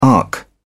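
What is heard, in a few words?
"arc", British English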